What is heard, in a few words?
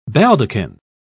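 "baldachin", British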